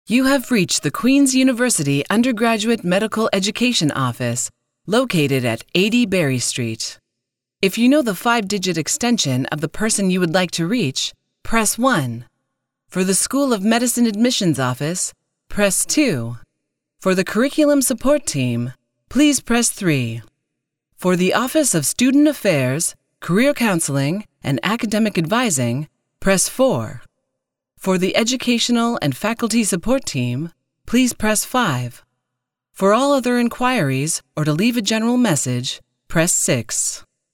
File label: Phone Menu; UGME